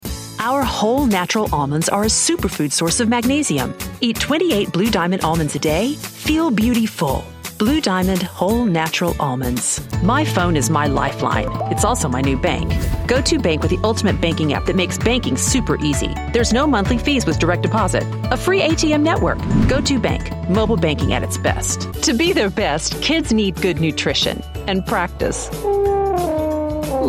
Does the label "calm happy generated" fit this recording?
No